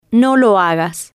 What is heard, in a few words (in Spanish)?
"no Lo Hagas", spanish